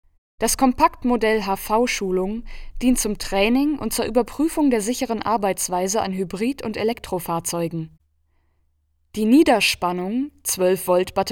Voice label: E-Learning Elektroauto

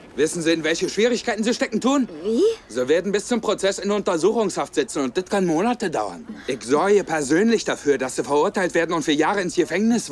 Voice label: Deutscher Polizist; Medical Police 101

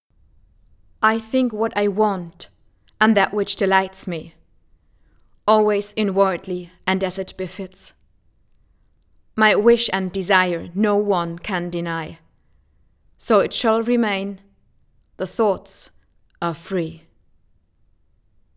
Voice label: Englisch

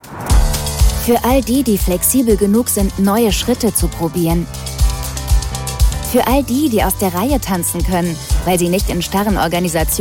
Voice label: Werbung; Haufe

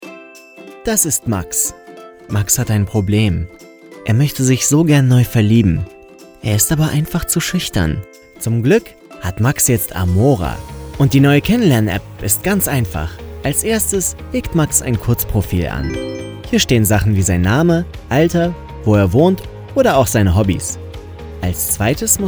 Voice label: Erklaerfilm Datingapp

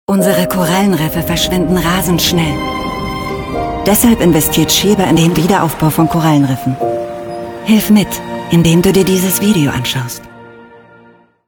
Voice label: Werbung; Sheba; motivierend

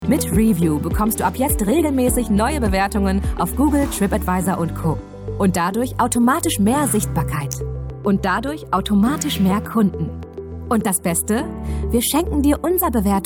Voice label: Werbung; Freachly; Review

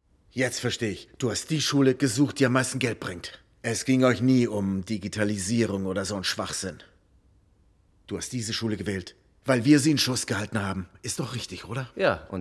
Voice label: DE Synchron

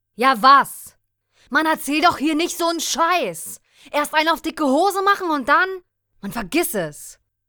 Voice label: wütend

